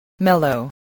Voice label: "mellow", voice, spelling